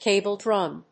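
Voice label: "CABLE DRUM", English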